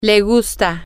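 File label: she likes, Spanish, female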